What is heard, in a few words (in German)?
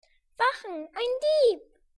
Dieb f